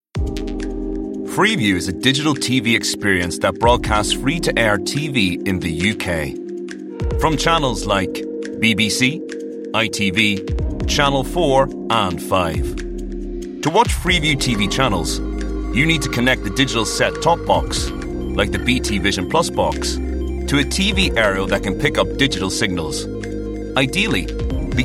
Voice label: northern irish accent corporate compilation